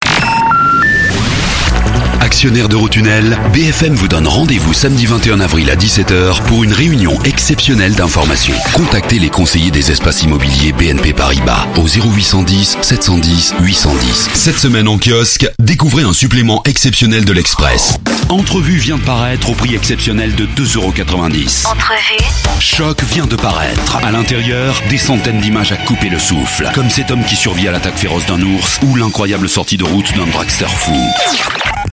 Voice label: French male corporate

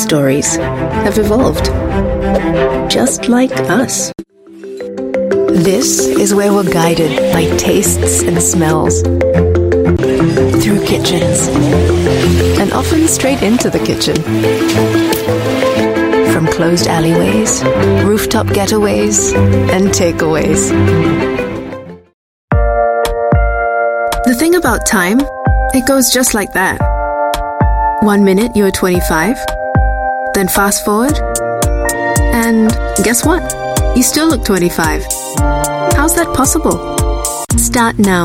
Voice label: Asian English Conversational Storytelling

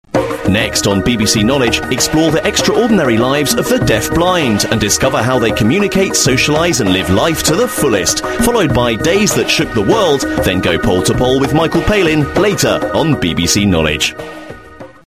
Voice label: UK English; BBC Knowledge

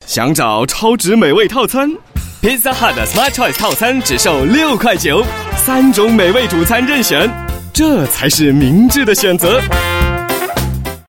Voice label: Mandarin; Pizza hut

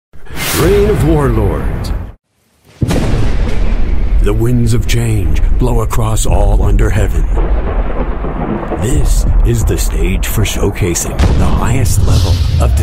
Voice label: US English Trailer; Reign of Warlords

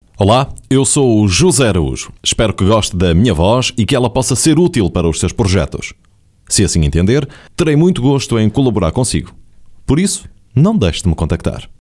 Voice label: International Portuguese

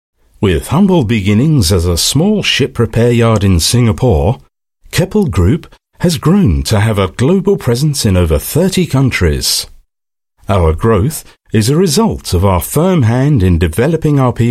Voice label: UK English Core Values Corporate; Keppel

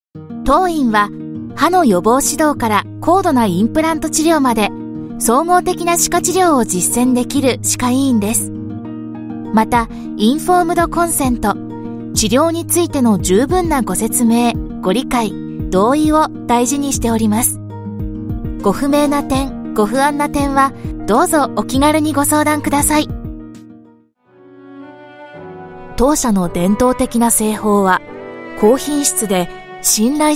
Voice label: Japanese Corporate

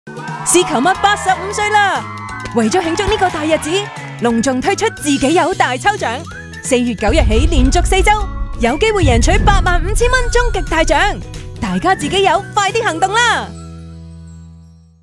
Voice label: Cantonese Voice Sample

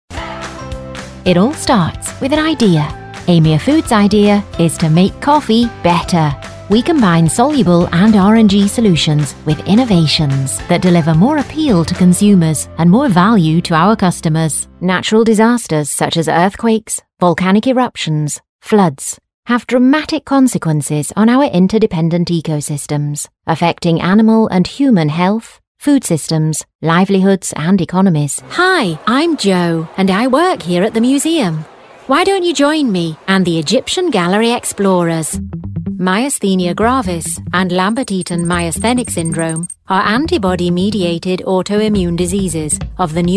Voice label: UK English Corporate RP; Reel

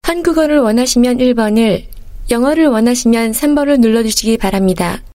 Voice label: Korean IVR; Female

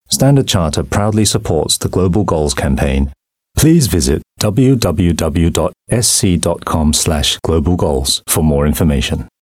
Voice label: UK English On Hold, Male; Stan Chart

